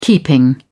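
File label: "keeping", British English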